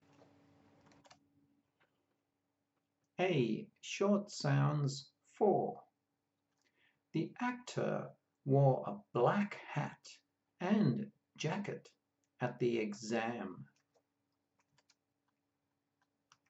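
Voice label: A short sounds, pronunciation of australian english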